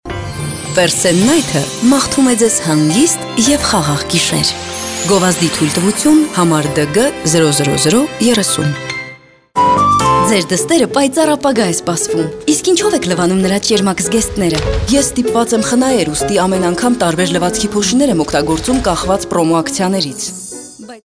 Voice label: Armenian voiceover, locutora armenia